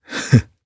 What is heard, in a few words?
Vox Happy, jp; kingsraid